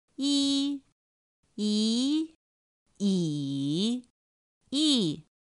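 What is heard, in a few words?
ī í ǐ ì, Mandarin Chinese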